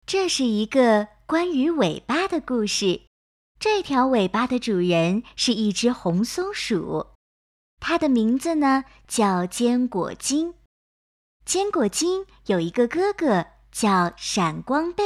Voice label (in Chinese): 儿童绘本旁白试音